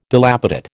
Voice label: "dilapidate", American